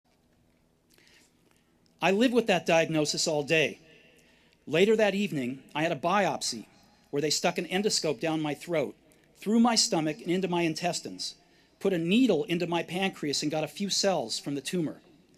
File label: Commencement Address; Steve Jobs; 2005; Stanford